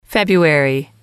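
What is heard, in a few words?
"february", English, female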